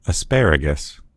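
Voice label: "asparagus", American English; gcompris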